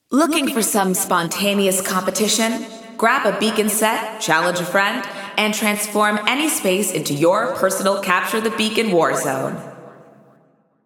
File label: Announcements; CTB Available; GAIA